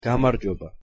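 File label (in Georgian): "გამარჯობა", Georgian pronunciation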